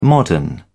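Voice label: "modern", British English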